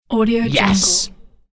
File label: male voice "yes"